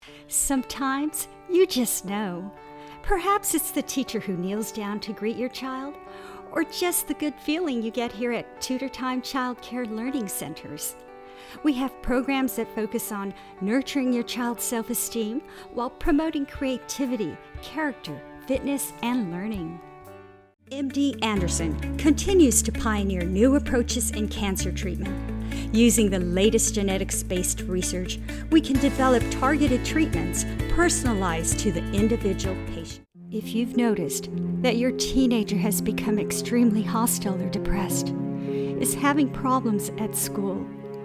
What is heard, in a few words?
Voiceover